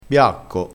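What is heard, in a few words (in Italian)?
"biacco", dialettale crespinese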